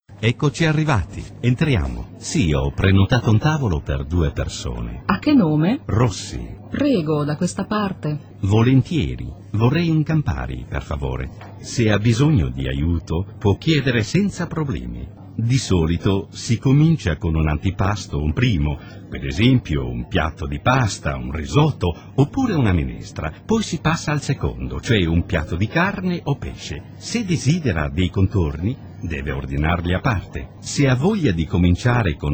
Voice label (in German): ital, maenner aus aller welt; langenscheidt